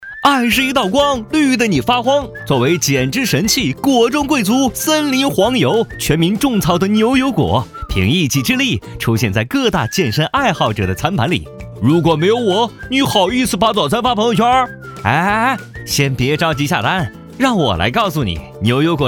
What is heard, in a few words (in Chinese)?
轻松搞笑, 男; 飞碟MG